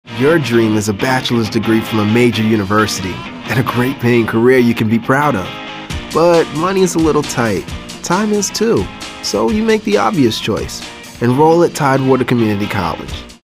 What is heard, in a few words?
younger